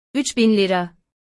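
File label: 3 thousand liras, Turkish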